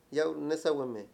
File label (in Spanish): "presidente", Mayo; Mundo moderno